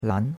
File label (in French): "lan2", chinois; Cours de Chinois